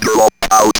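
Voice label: ROBVOX, robot voice; E-Lab; X Static Goldmine 1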